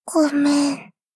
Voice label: sad sorry